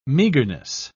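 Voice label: "meagerness", British English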